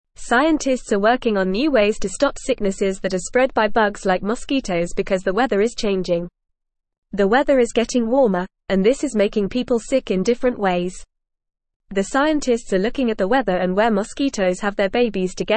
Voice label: English Newsroom Lower Intermediate FAST Reading